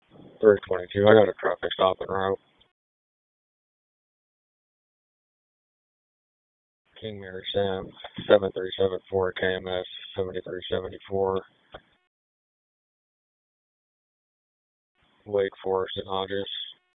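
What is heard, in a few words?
Police Northeast District Dispatch, p25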